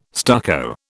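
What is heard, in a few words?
"stucco", British English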